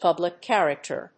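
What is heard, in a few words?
"public character", English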